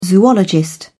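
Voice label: "zoologist", British English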